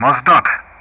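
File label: "Mozdok", English; Ka-50; Player Callsign; DCS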